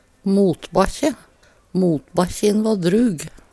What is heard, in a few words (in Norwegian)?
motbakkje, Numedalsmål; Substantiv hankjønn